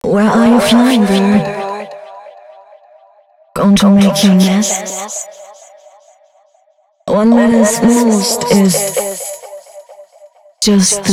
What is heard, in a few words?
female cis136, spoken hooks; Mutekki Media; Dance Ultra Voice VOL-1